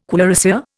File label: "cuirassier", Indian